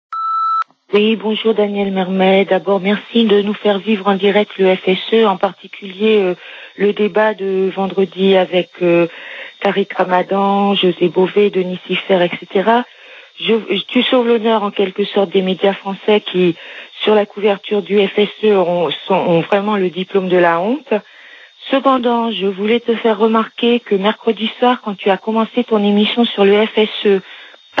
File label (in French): Femmes voilees repondeur